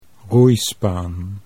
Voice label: "roeispaan", Dutch

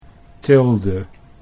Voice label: "tilde", Dutch

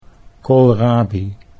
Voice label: "koolrabi", Dutch

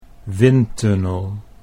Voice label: "windtunnel", Dutch

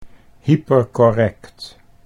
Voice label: "hypercorrect", Dutch

